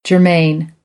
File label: "germane", American English; ɝː - ɜː